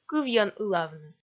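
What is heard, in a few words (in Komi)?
"кывйӧн ылавны", Komi, female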